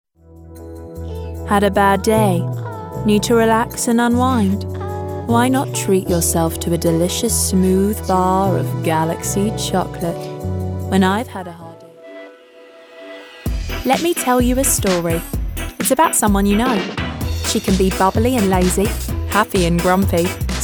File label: female English compilation